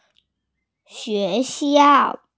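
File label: "school", Mandarin Chinese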